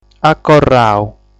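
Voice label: "Acorrau", Sardinian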